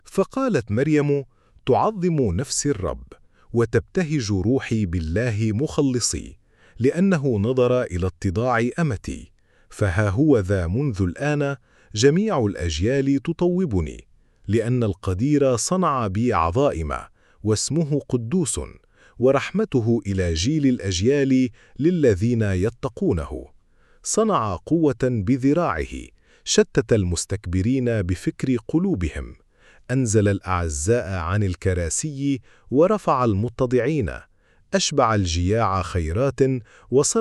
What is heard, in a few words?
magnificat recitation